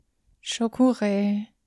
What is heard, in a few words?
Mantra